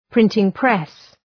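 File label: "printing press", English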